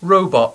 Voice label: "robot", English; hracky

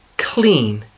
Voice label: "clean", English

